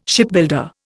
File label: "Shipbuilder", Indian